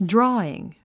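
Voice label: "drawing", English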